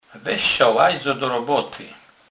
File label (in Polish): "lajza", kurpiow